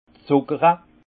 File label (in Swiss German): "zuckere", alsace; vin; enrichissement